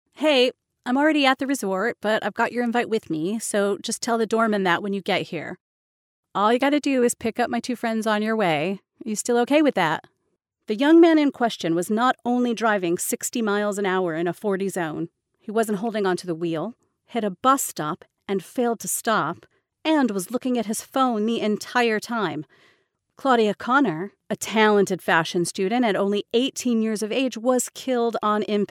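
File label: American English, female, voice over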